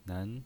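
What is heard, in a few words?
"nen", pinyin voice, Mandarin Chinese, second tone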